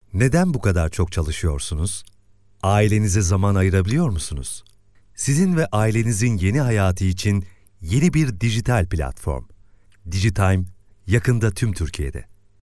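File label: Turkish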